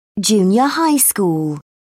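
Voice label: "junior high school", British English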